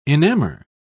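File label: "enamour", British English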